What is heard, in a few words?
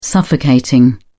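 "suffocating", British English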